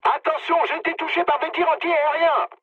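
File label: French damaged aa mood med; War Thunder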